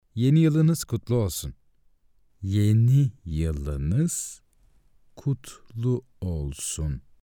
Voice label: turkish happy new year